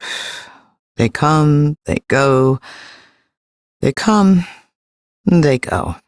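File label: Ambient Female Sweeper, Dialog; Duviri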